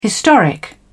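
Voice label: "historic", British English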